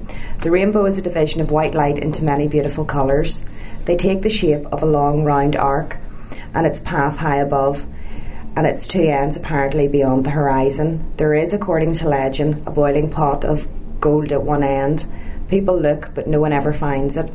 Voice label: Irish English, North of Ireland, reading passage, Cos. Antrim/Down